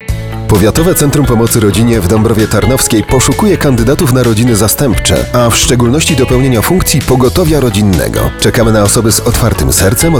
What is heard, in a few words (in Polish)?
spot; rodziny zastepcze